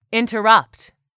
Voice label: "INTERRUPT", english; 3000 words